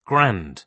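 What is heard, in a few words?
"grand", British English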